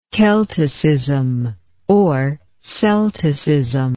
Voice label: "celtist", English